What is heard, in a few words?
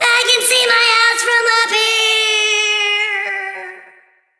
Troll VO Fall Quip